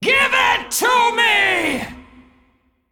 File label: Female Dragon Undead; Onyxia